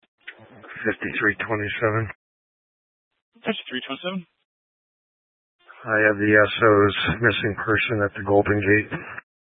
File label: Sheriff Dispatch, scanner; Mesa County